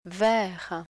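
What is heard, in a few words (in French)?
"vert", French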